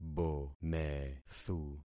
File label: "bomethu", concat syllables; Affixing experiment